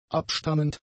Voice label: "abstammend", German; adjectives